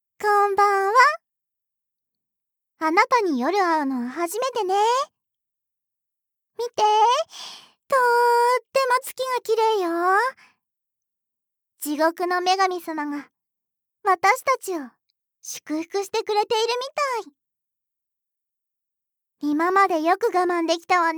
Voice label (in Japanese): 朝からボイス, ASMR; 朝からボイス12 ７日目 その２